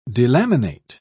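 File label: "delaminate", British English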